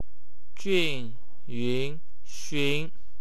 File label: "yng", wu chinese; 均匀训